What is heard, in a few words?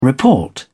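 "report", British English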